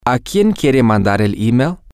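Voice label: whom to does she want to send the email, Spanish, male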